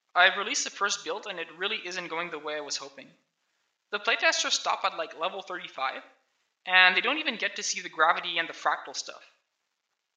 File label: voice, narration